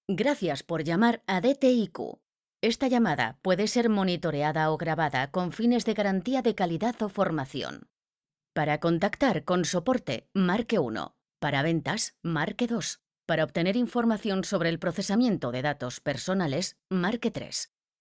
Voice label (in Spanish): IVR; banco de voces